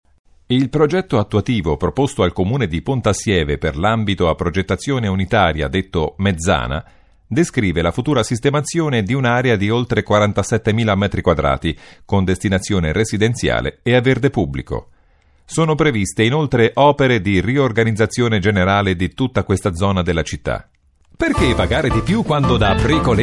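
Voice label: Italian, male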